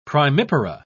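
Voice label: "primipara", British English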